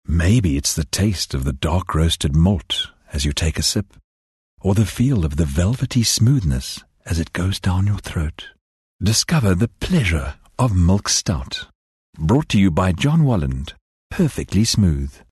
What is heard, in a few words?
English Softsell